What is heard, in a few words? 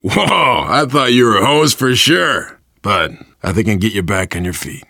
revive friend, voice, biker; Left 4 Dead 2; survivor